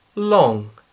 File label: "long", English